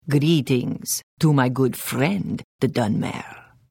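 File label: Hello, Khajiit female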